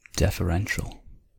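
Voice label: "deferential", US English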